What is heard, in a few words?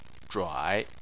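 "zhuai" Chinese, third tone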